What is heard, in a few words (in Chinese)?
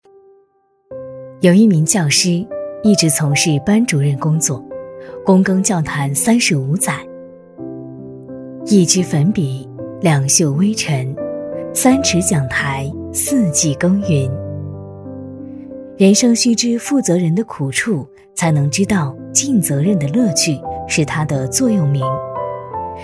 女, 专题, 人抒情解说; 大气质感; 深情感人